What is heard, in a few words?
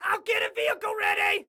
Self Task Vehicle, Excited Guy